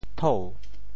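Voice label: "thou2", Teochew; 潮州; tǔ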